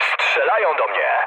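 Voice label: aircraft damaged, mood low, Polish speech; War Thunder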